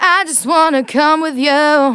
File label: Phrase Female; Vengeance Samples; Phrases